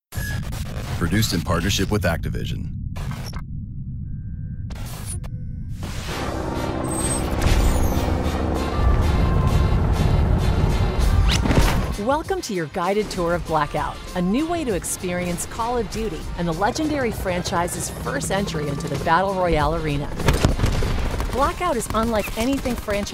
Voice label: Voice Over; Call of Duty Blackout